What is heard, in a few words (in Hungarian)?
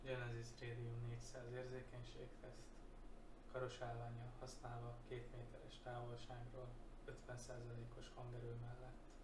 Radium 400 2m 50, 2 méter távolság, 50%, mikrofon; Genesis Radium 400; Kellően hangos marad távolabbról használva is.; Hangminőség teszt: összehasonlítás